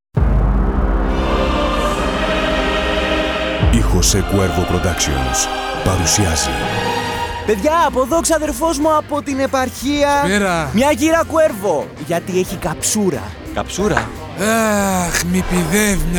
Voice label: PIANO LESSONS GREEK MIXED; JOSE CUERVO